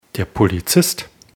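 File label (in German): "polizist", German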